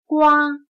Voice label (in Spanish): "瓜", Chino